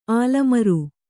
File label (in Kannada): "ಆಲಮರು", Kannada